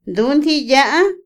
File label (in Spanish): Otomí, mujer; por mucho tiempo; INALI